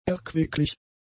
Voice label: "erquicklich", German; adjectives